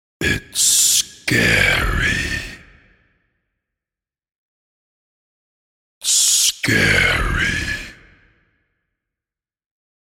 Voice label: Scary Spoken, Scary’ and ‘It's Scary’ spoken in deep ominous voice.; Evil Voices; Horror